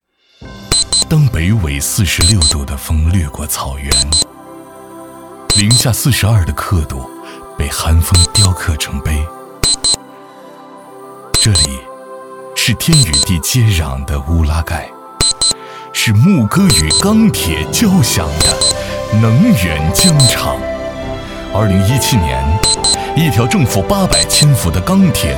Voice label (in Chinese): 男 走心宣传